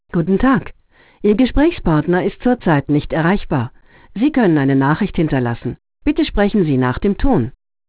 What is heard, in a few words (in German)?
ansage (w)